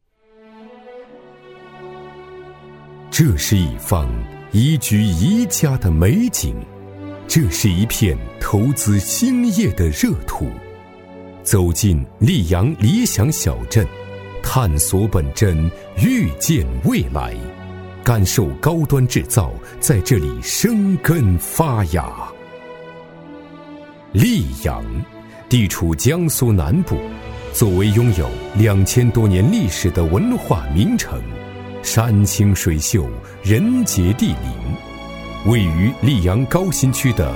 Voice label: 男 规划专题; 溧阳 锂享全球; 中英双语 大气浑厚

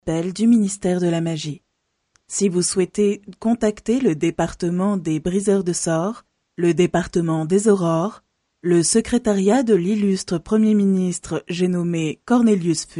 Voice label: 法语女声

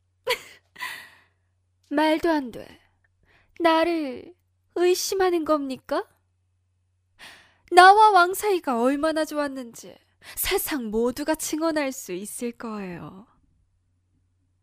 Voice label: 韩语女声 妖艳 邪恶

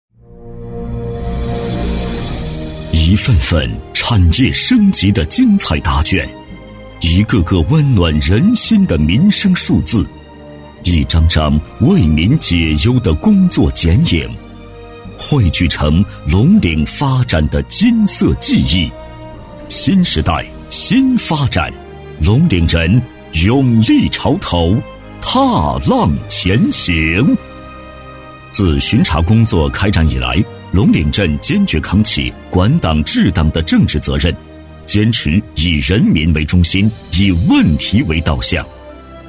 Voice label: 男 政府宣传片 激情厚重; 男101-高端厚重; 激情力度

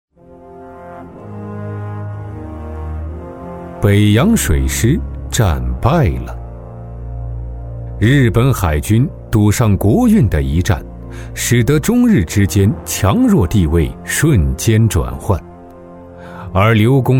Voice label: 男 历史纪录片 解说感; 中英双语; 舒缓抒情

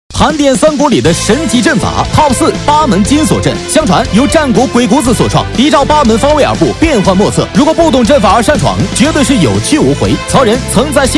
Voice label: 男 游戏配音 素人, 年轻全能 素人自然

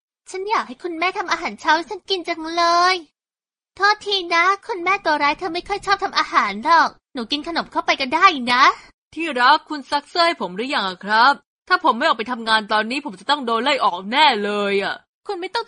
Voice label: 泰语女声 角色

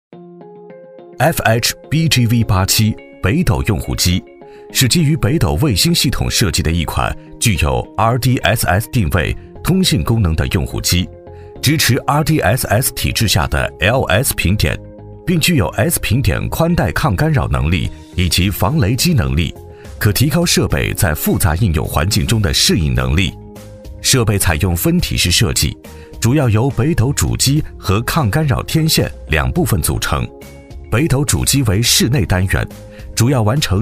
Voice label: 男 科技感宣传片 权威; 北斗系统产品; 男42-磁性质感; 轻松活泼

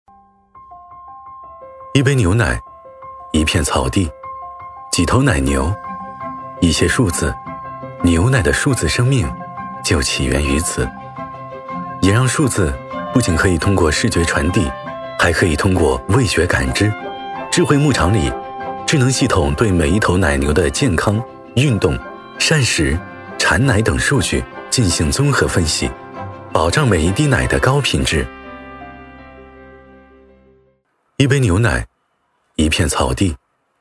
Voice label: 男 广告 温暖 牛奶, 抒情温暖 自然诉说; 伊利牛奶

